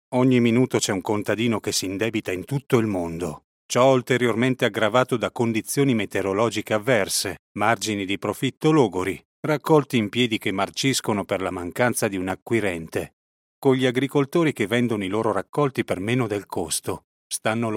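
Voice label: Italian, Corporate; GoLocalise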